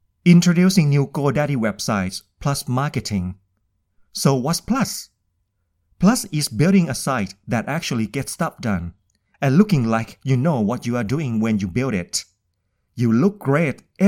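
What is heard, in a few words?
Thai Accented English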